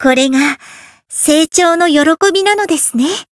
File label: growth, jp; sincere